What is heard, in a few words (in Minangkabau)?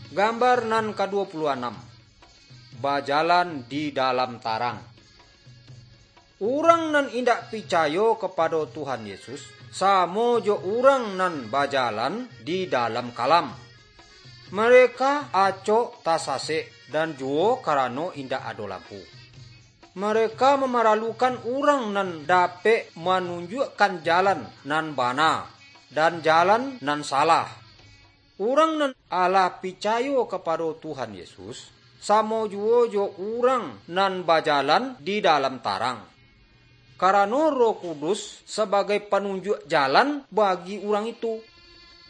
good news minangkabau